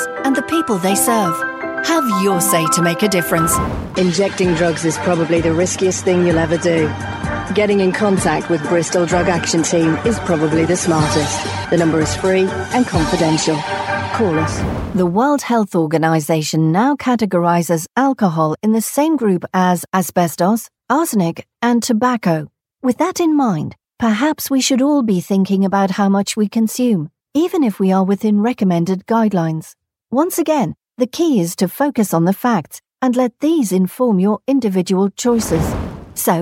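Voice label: healthcare audio mix, Natural Female Voice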